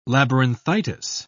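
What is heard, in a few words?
"labyrinthitis", British English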